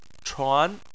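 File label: "chuan" second tone, Chinese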